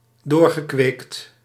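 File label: "doorgekweekt", Dutch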